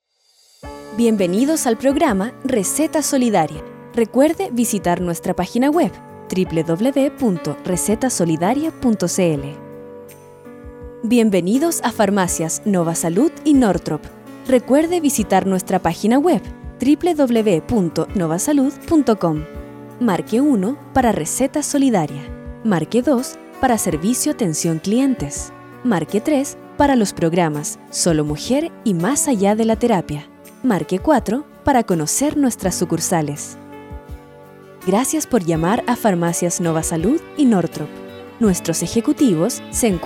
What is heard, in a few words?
IVR